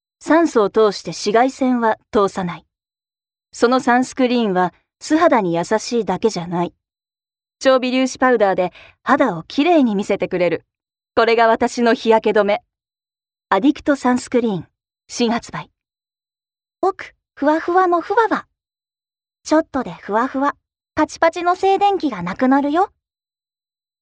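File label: Japanese, female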